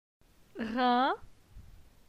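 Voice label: "Rin", French; phonetique; la syllabe in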